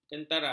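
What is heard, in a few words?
"kentara", Malay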